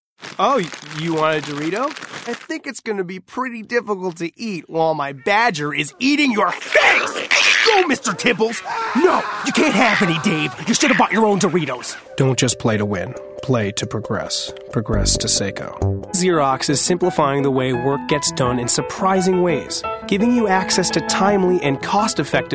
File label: commercials